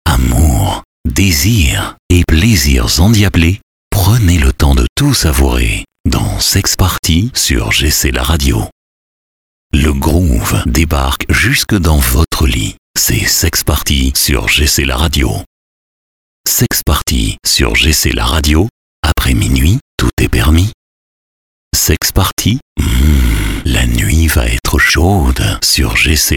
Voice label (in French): Voix Hom Grave Cool Sexy